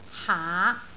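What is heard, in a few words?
"haa5", Cantonese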